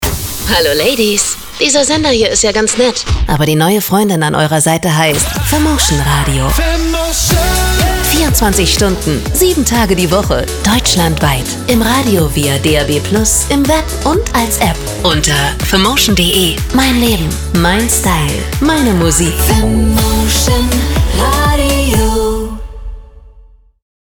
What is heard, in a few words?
Station Voice